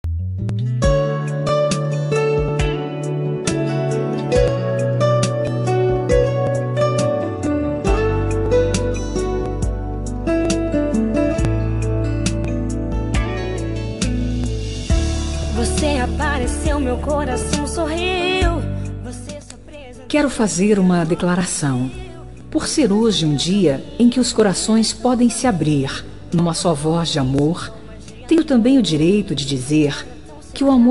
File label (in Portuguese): dia dos namorados gospel namorado, evangélica voz feminina; dia dos namorados para namorado